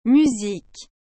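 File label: "musique", French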